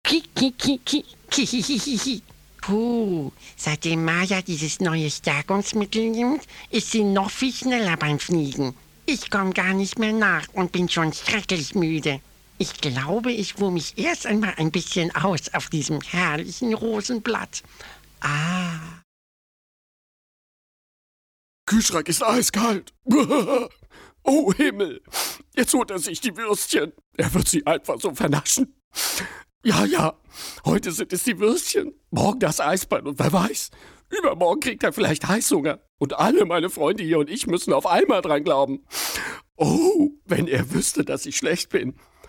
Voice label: trickstimme

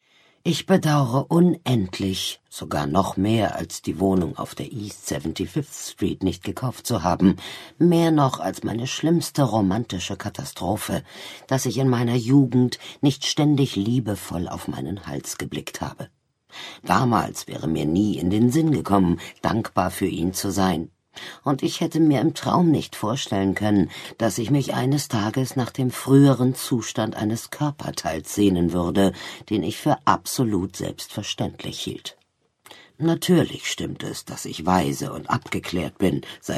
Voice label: Hoerbuch; LOFT VOICES

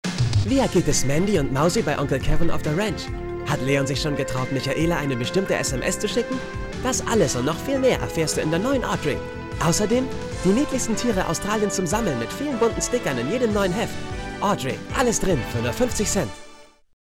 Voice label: werbung off maedchenzeitschrift

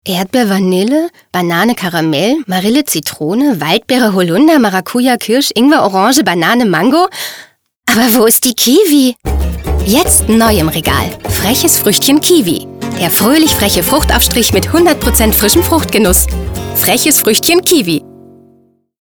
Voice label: werbung freches früchtchen

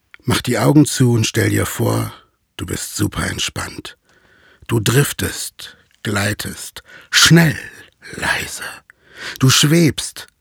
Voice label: Railways Werbung

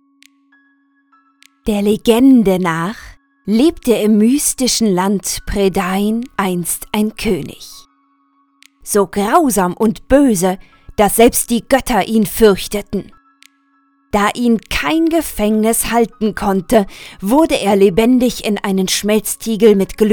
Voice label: Erzaehlerin

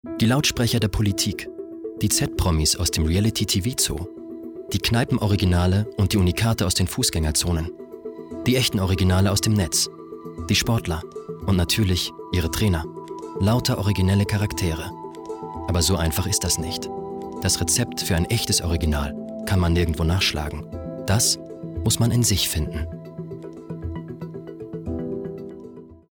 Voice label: Trailer Reportage; LOFT VOICES

